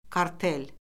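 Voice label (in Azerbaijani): "kartel", Azerbaijani; Orfoqrafiya Lüğəti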